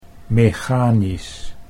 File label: "mechanisch", Dutch